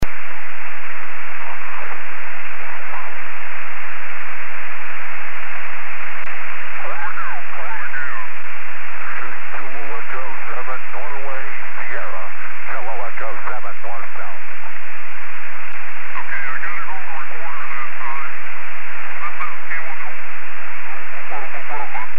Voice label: ao-07 qso